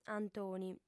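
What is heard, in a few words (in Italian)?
"antoni", sarda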